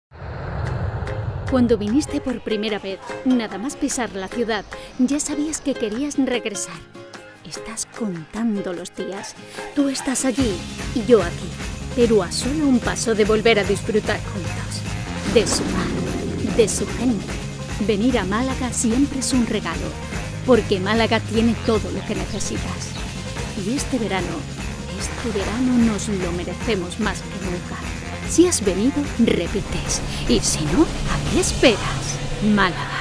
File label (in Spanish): Cuñas y Spots Locutora